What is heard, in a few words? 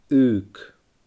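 "aog", Scottish Gaelic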